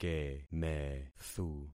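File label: "kemethu", concatenated syllables; Affixing experiment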